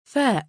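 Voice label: "faa", Arabic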